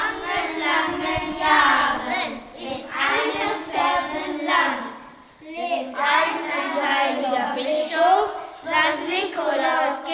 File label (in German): Nikolaus Gedicht; lvr johanniter schule; flurkonzerte 2020